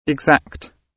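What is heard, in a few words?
"exact", British English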